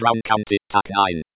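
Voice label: BC Tac 9, Computer Voice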